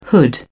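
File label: "hood", British English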